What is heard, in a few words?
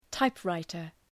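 "typewriter", English; {‘taıp,raıtər}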